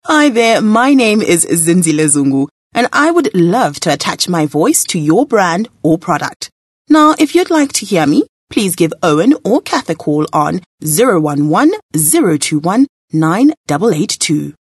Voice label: English conversational